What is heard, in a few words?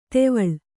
"ಧಾವಳಿ", Kannada